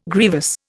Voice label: "grievous", Indian

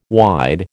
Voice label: "wide", American

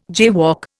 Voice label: "jaywalk", Indian

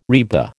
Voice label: "RIBA", American

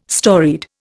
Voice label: "storied", Indian

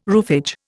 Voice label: "roofage", Indian

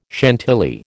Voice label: "Chantilly", American

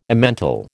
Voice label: "Emmental", American

